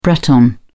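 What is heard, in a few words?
"breton", British English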